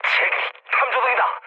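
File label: Korean searchlight mood low, speech; War Thunder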